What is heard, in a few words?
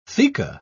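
"theca", British English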